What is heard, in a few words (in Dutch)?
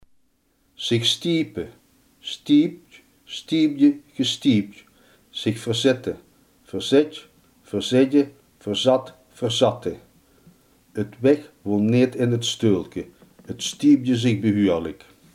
"verzetten zich", limburgse